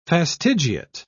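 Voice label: "fastigiate", British English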